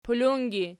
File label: "pulungi", Archi